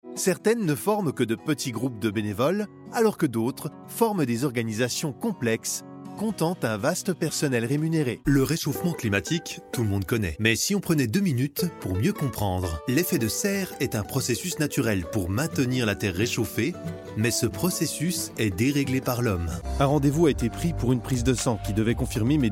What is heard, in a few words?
french explainer videos